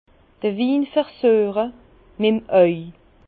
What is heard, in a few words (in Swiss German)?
"versueche", Bas Rhin; vin; goûter le vin avec lœil